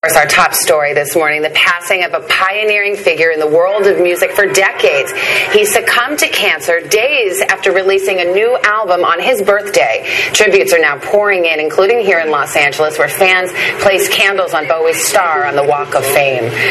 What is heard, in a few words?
death announcement; Bowie